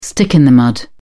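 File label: "stick in the mud", British English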